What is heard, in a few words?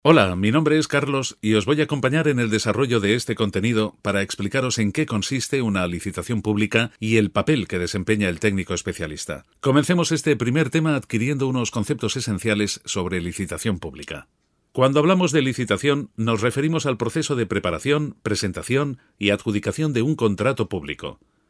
e-learning